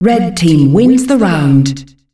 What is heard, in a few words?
red team wins the round, announcer female; Unreal Tournament; ut2004